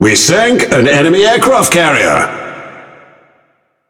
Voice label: Speech Destroy Enemy Aircarrier, EN GB; World Of Warships